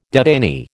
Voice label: "detainee", American